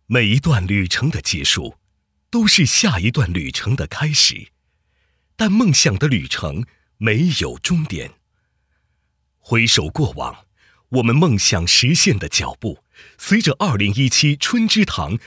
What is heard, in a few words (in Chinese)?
男, 宣传片; 2018春芝堂缤纷之旅 梦想海外游